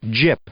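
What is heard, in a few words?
"gyp", English, male; noun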